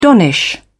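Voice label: "donnish", British English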